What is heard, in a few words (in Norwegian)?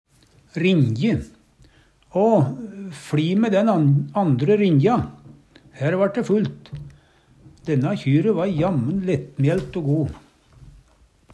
rinje, Numedalsmål